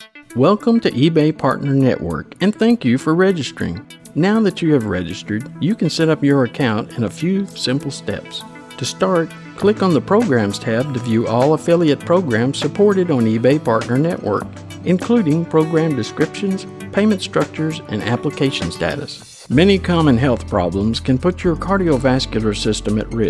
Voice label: narration